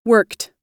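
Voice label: "worked", US English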